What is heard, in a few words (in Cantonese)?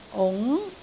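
"ung2", Cantonese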